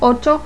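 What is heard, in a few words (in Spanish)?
"ocho", Spanish; Letter World v1.98a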